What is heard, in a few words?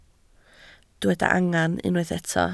"angen", Welsh